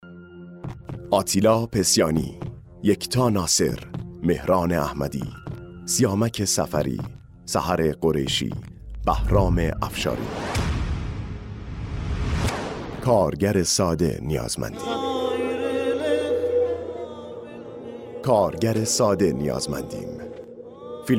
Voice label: Persian-Farsi male